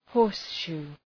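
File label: "horseshoe", English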